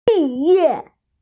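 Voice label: "graduate", Mandarin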